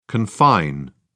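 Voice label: "confine", British English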